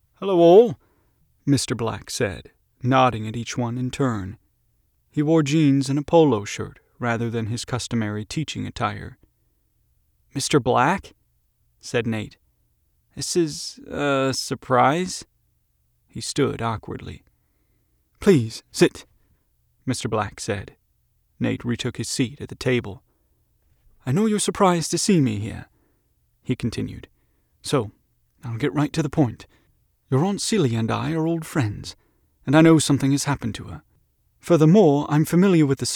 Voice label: fantasy audiobook, masculine voices